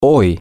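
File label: today, Spanish, male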